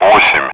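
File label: Speech, Russian, air traffic control; Digits; DCS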